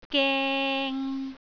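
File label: "geng3", Cantonese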